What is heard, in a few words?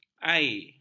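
"air", Malay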